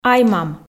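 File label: "ай-мам", Komi, female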